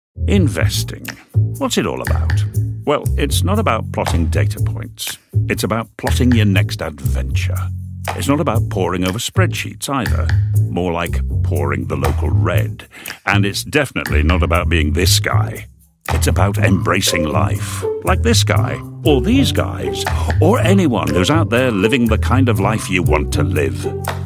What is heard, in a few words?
Commercials